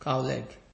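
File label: "cow leg", Nigerian Pidgin, male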